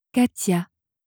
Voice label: "catia", franzoesische maedchennamen

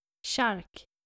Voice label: "tjark", nordische jungennamen

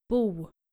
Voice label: "bo", skandinavische jungennamen

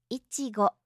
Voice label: "ichigo", japanische maedchennamen

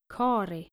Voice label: "kari", skandinavische maedchennamen

